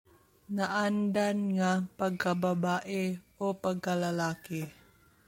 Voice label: Cebuano